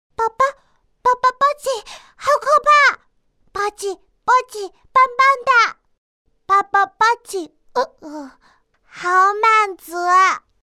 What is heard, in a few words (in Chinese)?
软萌可爱女童萝莉音